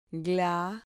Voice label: "gla", Spanish syllable